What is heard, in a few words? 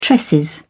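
"tresses", British English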